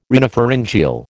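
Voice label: "rhinopharyngeal", American English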